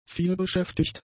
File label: "vielbeschäftigt", German; adjectives